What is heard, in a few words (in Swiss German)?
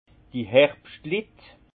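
"herbschtlit", Alsace Haut Rhin; vin; vendangeurs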